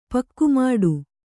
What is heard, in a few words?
"ಪಕ್ಕುಮಾಡು", Kannada